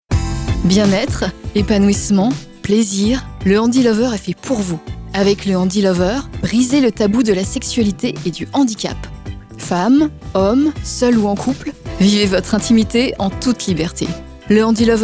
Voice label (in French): Spot publicitaire Radio; HandyLover; Vivre FM